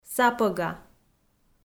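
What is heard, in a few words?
"сапӧга", Komi, female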